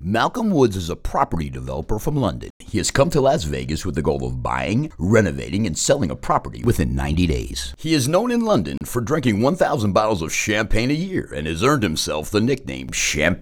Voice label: VO INTRO